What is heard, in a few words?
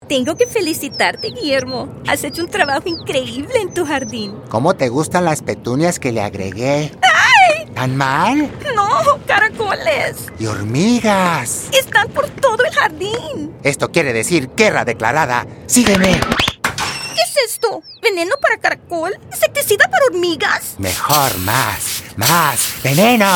spanish